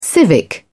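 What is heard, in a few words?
"civic", British English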